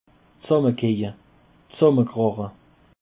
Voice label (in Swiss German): "dsammekeje", alsace